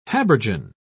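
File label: "habergeon", British English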